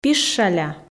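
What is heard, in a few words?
"пишшаля", Komi, female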